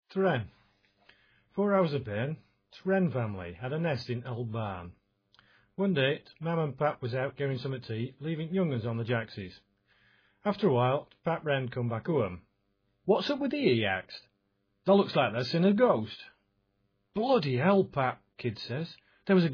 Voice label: s yorkshire